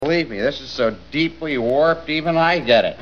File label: warped